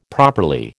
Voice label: "properly", American

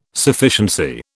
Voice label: "Sufficiency", British

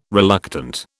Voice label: "reluctant", British

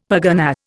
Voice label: "pergunnah", Indian; Dictionary

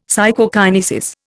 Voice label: "Psychokinesis", Indian; Dictionary

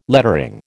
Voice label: "lettering", American

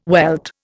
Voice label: "welt", Indian

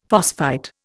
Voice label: "phosphite", Indian; Dictionary

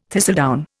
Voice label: "thistledown", Indian

